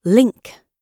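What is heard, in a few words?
"link", British English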